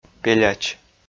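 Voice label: "пеляч", Komi, male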